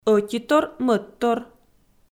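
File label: "ӧтитор-мӧдтор" Komi, female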